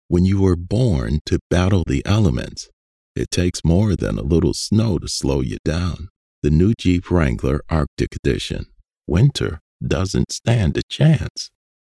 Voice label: Dry; Jeep Rangler Artic Edition